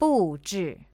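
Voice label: "buzhi", Chinese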